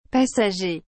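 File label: "passager", French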